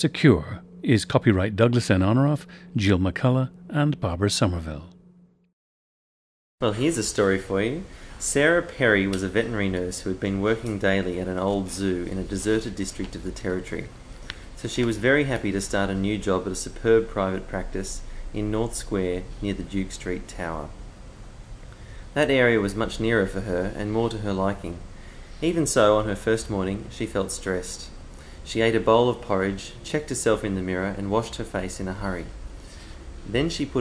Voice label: australia

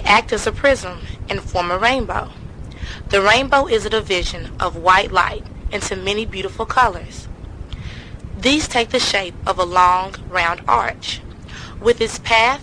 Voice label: kentucky, dialects

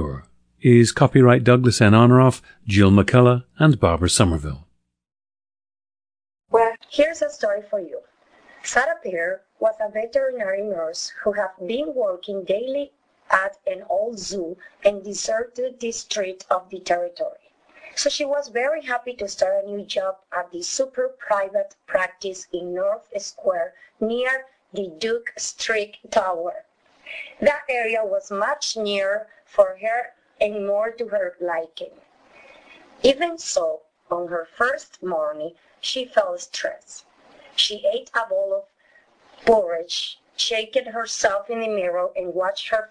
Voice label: venezuela

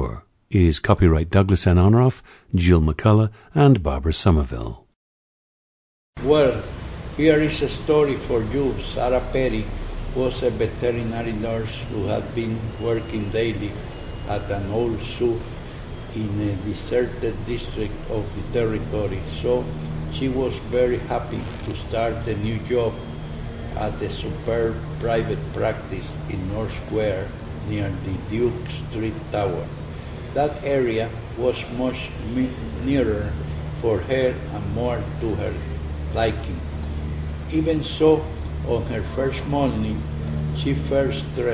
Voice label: puerto rico

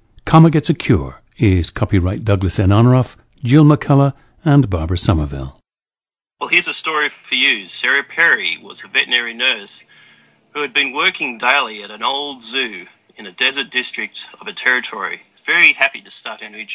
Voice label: australia

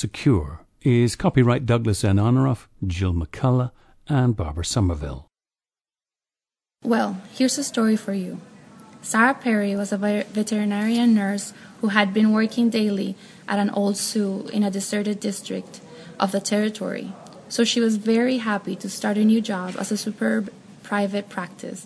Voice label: puerto rico

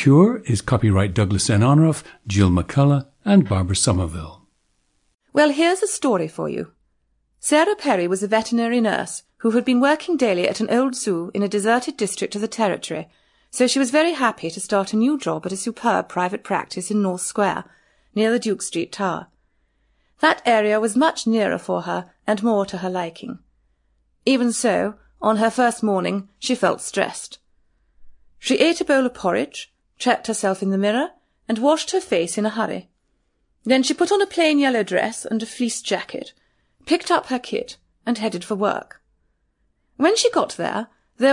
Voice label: Received Pronunciation

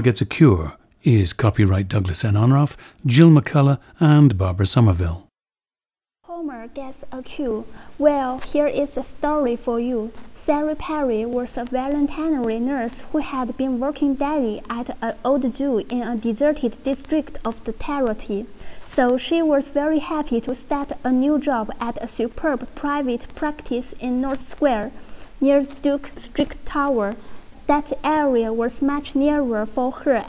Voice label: liaoning

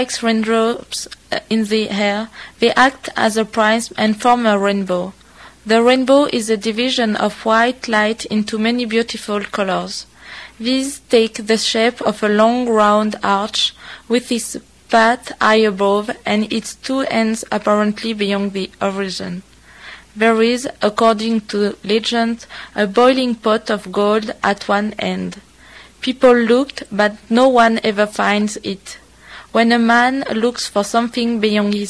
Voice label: france, dialects

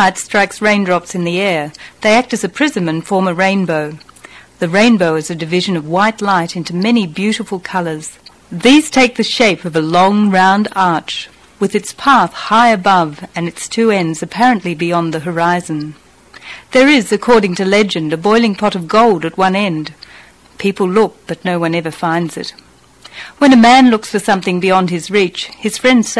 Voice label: australia

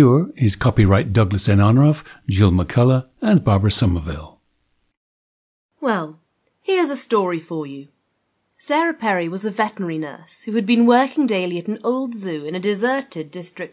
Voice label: Received Pronunciation

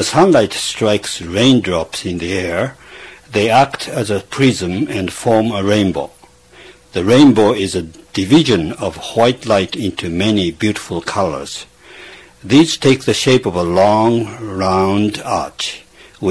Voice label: japan

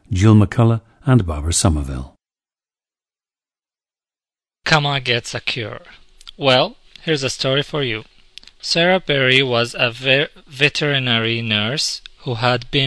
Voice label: saudi arabia, dialects

